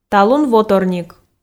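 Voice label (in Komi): "талун воторник", Komi, female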